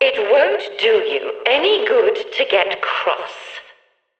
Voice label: Background Announcements

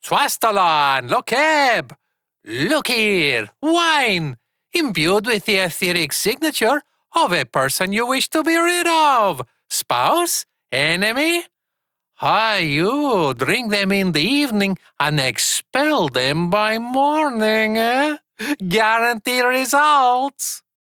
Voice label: Ost Male en; Cetus

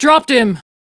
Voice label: Crew Enemy Defeat, Female; Dialog; Warframe; Railjack Crew; Steel Meridian

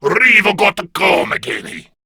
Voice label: Player Spotted, Male

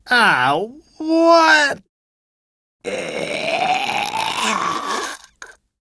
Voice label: Death, en, Dialog Barks Battle; Warframe; Chipper

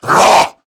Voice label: Threatened, Male

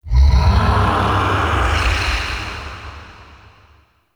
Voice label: Angry Voice; Ambience; Gameplay; Duviri

